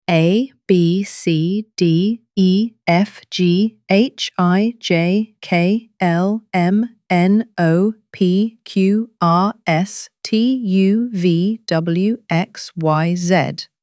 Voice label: alphabet, anglijskyj; anglijskyj alfavit dlya ditej vyvchayemo litery legko ta veselo